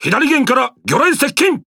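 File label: Japanese Speech Alarms Torpedo Left; World Of Warships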